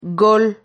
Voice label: "gol", Silabas

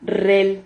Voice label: "rrel", silabas

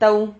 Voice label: "tahu", Silabas

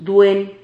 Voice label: "duen", Silabas

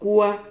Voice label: "cua", Silabas

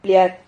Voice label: "pliad", Silabas

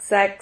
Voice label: "xac", Silabas

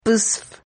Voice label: "psf", Silabas

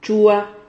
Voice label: "chua", Silabas

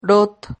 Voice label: "rot", silabas

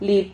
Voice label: "lib", Silabas

